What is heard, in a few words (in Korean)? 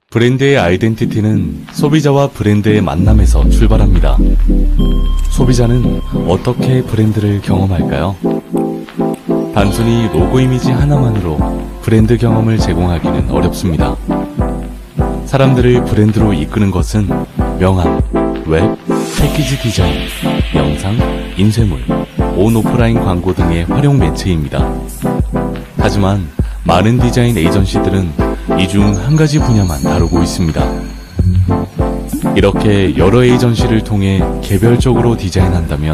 기업 홍보 편안한; 엑스네임